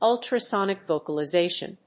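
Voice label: "Ultrasonic Vocalization", English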